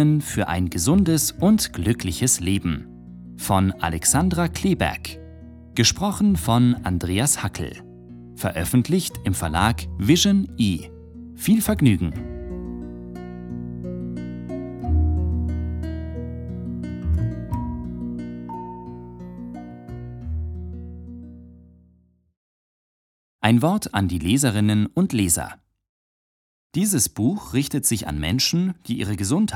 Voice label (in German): Hörbuch Selbstheilung